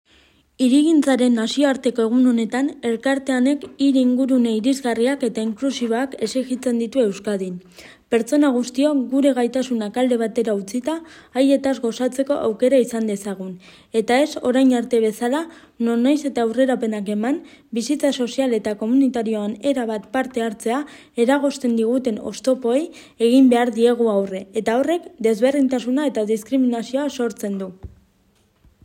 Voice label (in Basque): euskera; desgaitasun fisikoa duten pertsonen eskubideak; euskadin